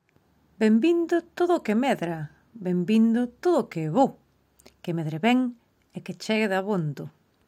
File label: galician